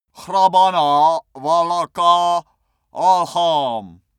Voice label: male pray arabian